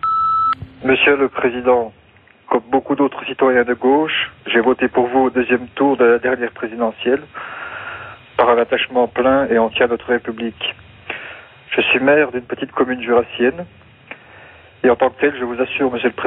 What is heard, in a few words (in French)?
Appel repondeur; Cesare Battisti